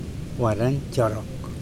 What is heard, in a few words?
"sailing canoe", Mokilese